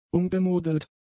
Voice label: "umgemodelt", German; adjectives